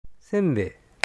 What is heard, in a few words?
"sembei", Japanese